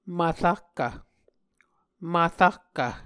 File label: "mvrahkv", Muscogee